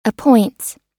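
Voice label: "appoints", US English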